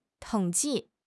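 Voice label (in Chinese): "统计", Chinese; HSK6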